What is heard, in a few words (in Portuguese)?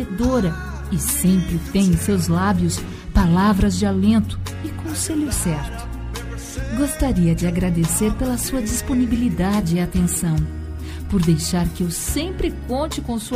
dia do amigo feminina; dia do amigo a voz feminina